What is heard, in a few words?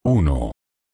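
"uno", Spanish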